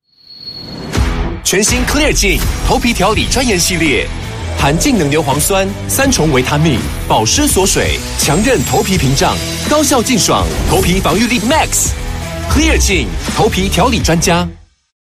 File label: Taiwanese